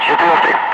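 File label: Russian calm; Ka-50; Wingman; DCS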